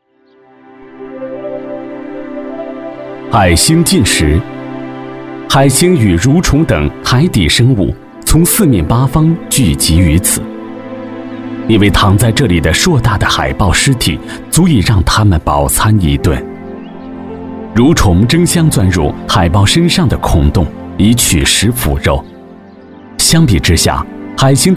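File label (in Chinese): 男国大音-纪录片-海洋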